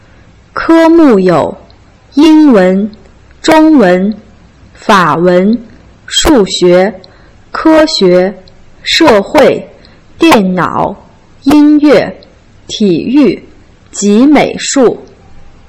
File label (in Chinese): ke mu you ying wen zhong yue ti yue ji mei shu, Putonghua